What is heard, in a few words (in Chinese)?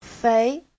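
"fei2" Chinese; (tính từ) mập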